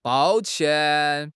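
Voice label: "bào qiàn", Chinese; HSK4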